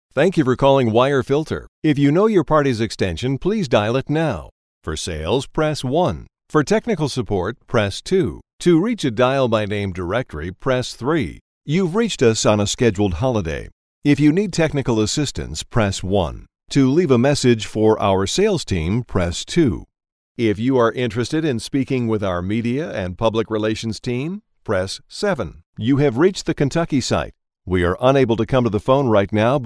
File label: eng american male; dictor